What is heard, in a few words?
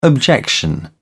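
"objection", British English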